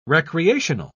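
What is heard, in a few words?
"recreational", British English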